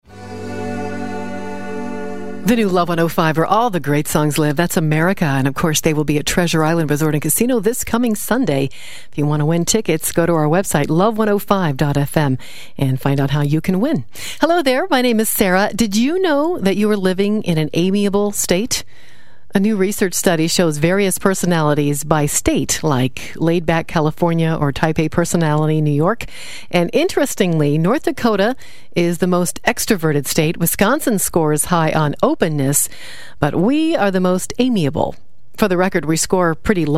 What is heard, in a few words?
aircheck